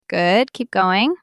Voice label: Female Good Keep Going

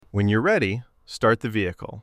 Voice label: Male Start Vehicle

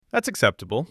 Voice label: Male Thats Acceptable

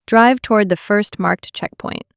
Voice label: Female First Checkpoint

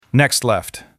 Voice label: Male Next Left

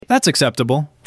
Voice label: Male Thats Acceptable

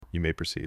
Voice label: Male May Proceed

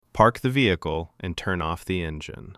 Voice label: Male Park Turn Off Engine

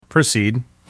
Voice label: Male Proceed

